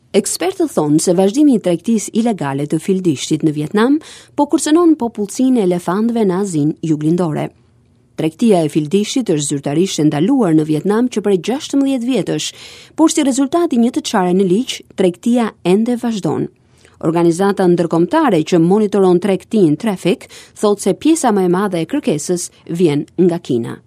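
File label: Albanian; Albanian, Male, 20s-30s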